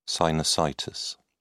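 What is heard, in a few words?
"sinusitis", British English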